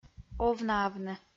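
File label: "овнаавны", Komi, female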